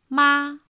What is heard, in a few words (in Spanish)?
"mā", Chino